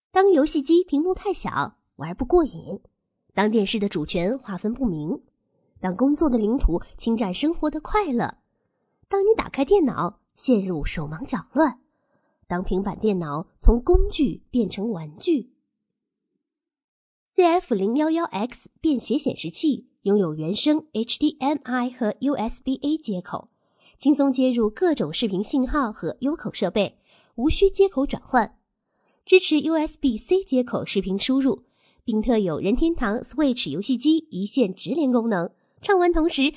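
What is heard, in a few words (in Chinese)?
女广告; 显示器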